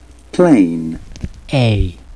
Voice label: plane uk